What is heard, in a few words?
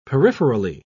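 "peripherally", British English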